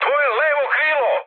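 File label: Serbian left wing mood high, speech; War Thunder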